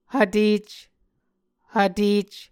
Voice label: "hvtec", Muscogee